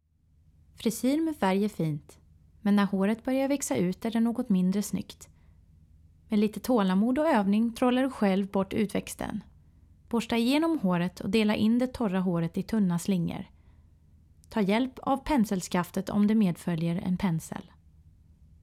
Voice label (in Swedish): Svenska E-Learning